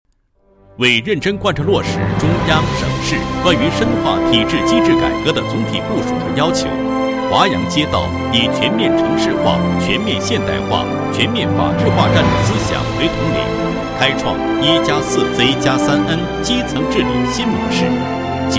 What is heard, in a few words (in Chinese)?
男 工作纪实; 华阳街道2015年特色创新工作